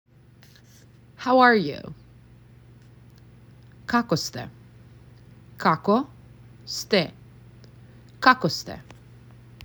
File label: How are You, macedonian; the talking macedonian phrasebook